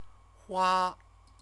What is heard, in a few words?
"hua", Chinese; CTCFL; University of Oxford